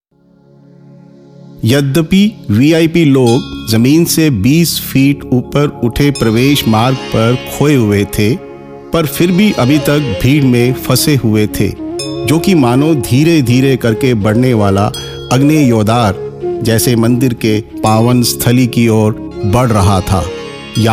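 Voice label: NARRATION DOCUMENTARY FOR TEMPLE HINDI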